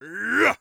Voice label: attack, orc voice; Orc Voice Pack